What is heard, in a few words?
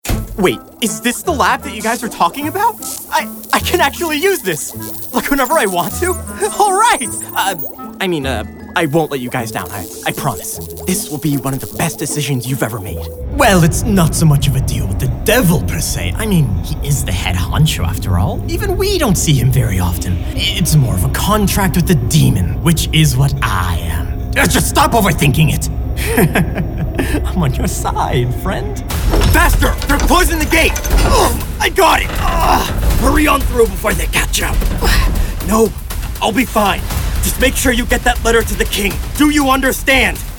Character